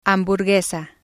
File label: "hamburguesa", spanish